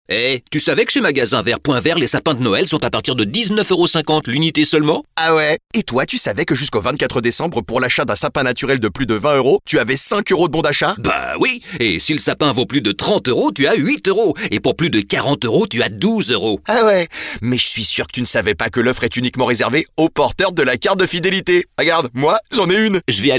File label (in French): French, Christmas Commercials; France; Christmas Commercials 2023; Eureden